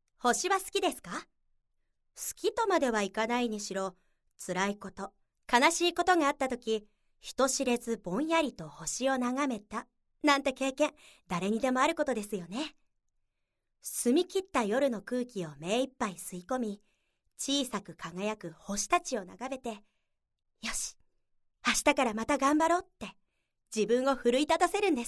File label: narration